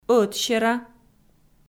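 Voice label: "ӧтсера", Komi, female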